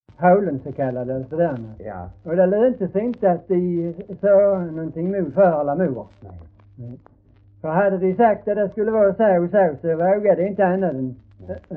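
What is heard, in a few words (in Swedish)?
Hörja Skåne, dialekter; Folkminnen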